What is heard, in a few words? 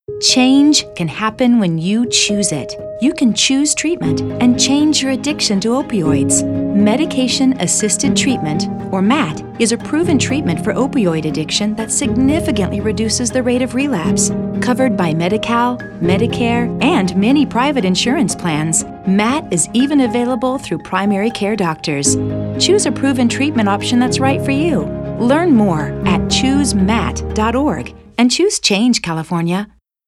Radio ENG